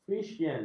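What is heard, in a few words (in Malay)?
"friesien", Malay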